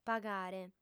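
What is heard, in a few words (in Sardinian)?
"pagare", female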